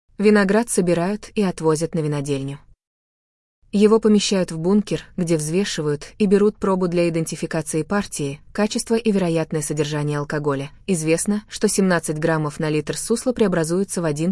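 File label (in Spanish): elabora, Russian; elaboracion del vino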